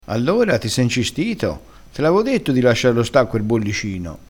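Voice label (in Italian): "incistito", crespinese dialettale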